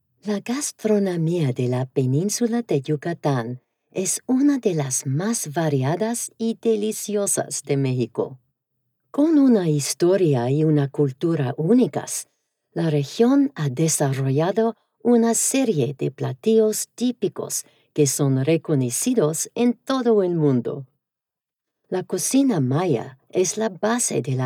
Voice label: Spanish; La Comida Yucateca